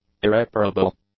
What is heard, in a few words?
"irreparable", english; adjectives